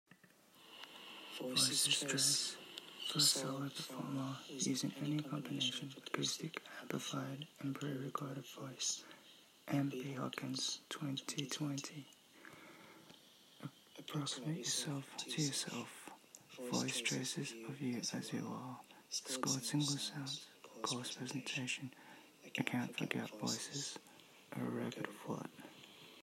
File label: voice message